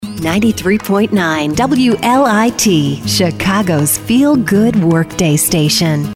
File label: Imaging Adult Contemporary Lite